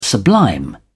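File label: "sublime", British English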